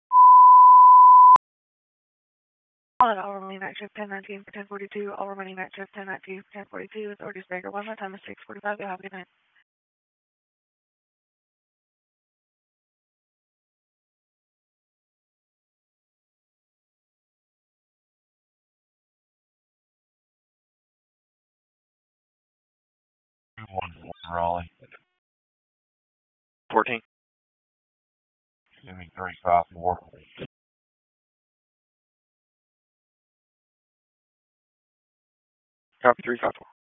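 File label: Police Northeast District Dispatch; Raleigh Police Northeast District Disp; wake p25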